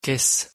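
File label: pronunciation French "caisse"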